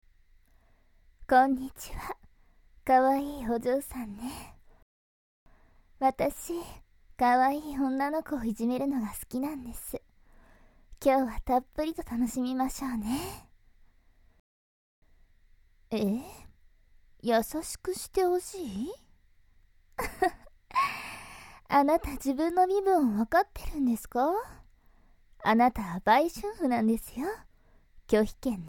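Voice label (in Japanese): プレイパート（水音なし）; 【女体化】おち〇ぽ中毒の風俗嬢に、堕ちたボク【催眠音声】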